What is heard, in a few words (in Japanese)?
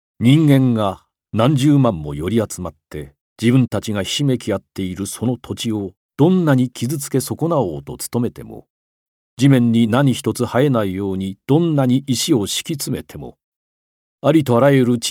朗読